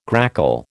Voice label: "grackle", American